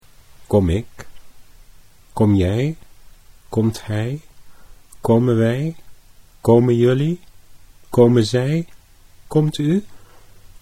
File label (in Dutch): "kom ik", Dutch